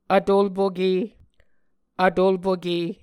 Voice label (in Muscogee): "vtolpoke", Muscogee